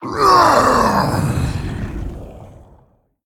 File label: Male Elemental Death